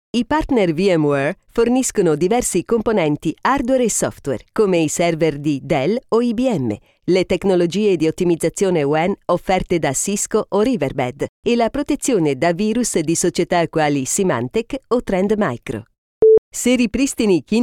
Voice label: ITA Elearning Documentary Corporate